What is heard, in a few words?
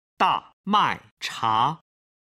"damaicha", Chinese